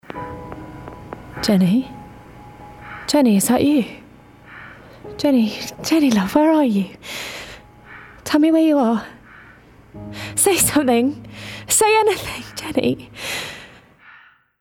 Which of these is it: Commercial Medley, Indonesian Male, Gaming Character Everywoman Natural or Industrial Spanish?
Gaming Character Everywoman Natural